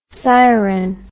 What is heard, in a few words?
"syren", English word